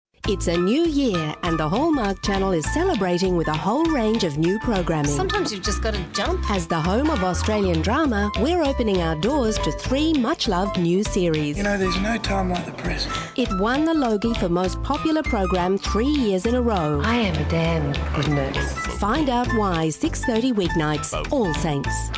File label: promos